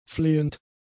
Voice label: "flehend", German; adjectives

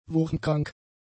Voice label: "wurmkrank", German; adjectives

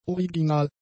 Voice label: "original", German; adjectives

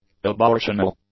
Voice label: "abortional", english; adjectives

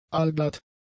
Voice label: "aalglatt", German; adjectives

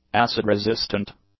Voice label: "acid-resistant", English; adjectives

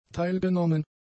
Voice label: "teilgenommen", German; adjectives

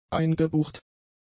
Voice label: "eingebucht", German; adjectives

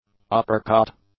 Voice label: "uppercut", English

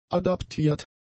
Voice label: "adaptiert", german; adjectives

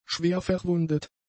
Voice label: "schwerverwundet", German; adjectives

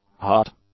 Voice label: "hot", English; adjectives

